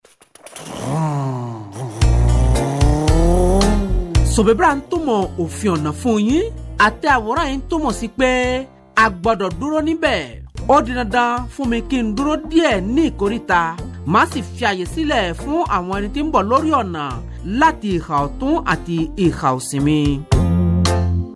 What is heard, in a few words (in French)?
CODE DE LA ROUTE YORUBA